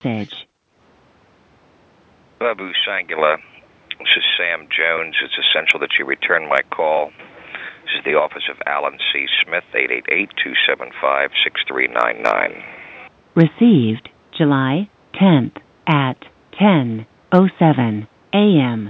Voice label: Voicemail; Violations